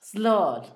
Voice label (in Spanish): ojo, Zapoteco, Asunción Ocotlan; Cuerpo; INALI; Haspelmath